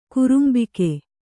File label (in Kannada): "ಕುರುಂಬಿಕೆ", Kannada